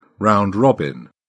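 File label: "round robin", British English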